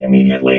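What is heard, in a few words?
"immediately", robot voice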